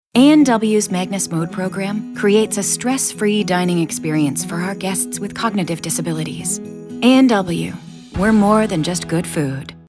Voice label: Explainer